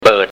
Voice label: "boet", Thai